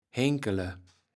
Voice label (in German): "Henkele", Platt